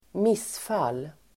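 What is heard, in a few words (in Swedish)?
"missfall", Swedish; missfall substantiv, miscarriage; Uttal: [²m'is:fal:]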